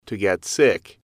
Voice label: "to get sick", English